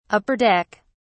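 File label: "upper deck", British English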